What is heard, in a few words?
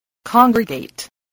"congregate", english